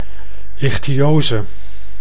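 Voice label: "ichthyose", Dutch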